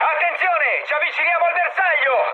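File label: Italian near waypoint mood high, speech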